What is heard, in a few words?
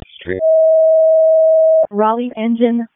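Raleigh Fire Department ALERT, p25; RFD ALERT; wake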